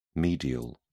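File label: "medial", English, British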